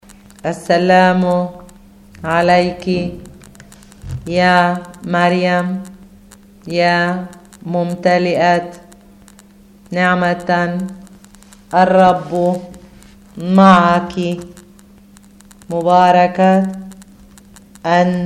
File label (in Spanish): ave maria arabe pausado